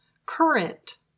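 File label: "current", English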